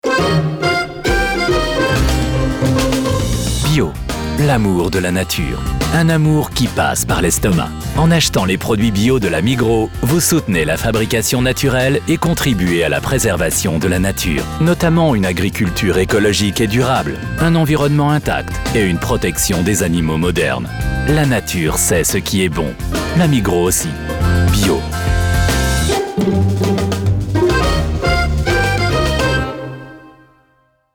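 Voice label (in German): French, Fremdtake Freundlich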